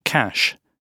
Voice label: "cache", GB